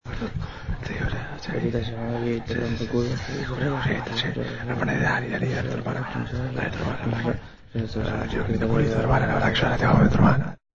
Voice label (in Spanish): Cuchicheo; Banco de Sonidos - Facultad de Art; Leitao - Cazanave - Capelluti - Suasnabar